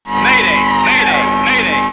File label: Mayday, aircraft sounds